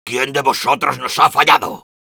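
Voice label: jeers, Spanish; Soldier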